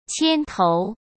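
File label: "牵头", Mandarin Chinese; chinese-flashcards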